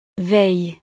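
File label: "veille", French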